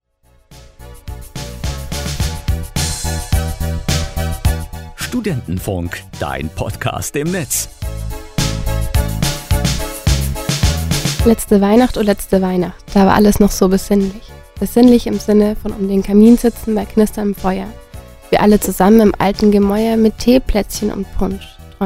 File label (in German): melancholisches gedicht; adventskalender